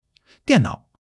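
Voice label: "diànnǎo", Chinese; 基础汉语40课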